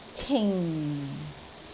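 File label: "cing4", Cantonese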